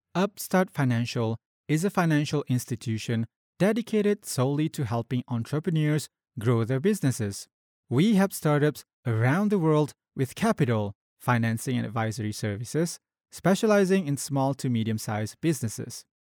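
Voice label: Malay, Malaysia, male; voice over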